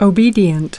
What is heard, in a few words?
"obedient", US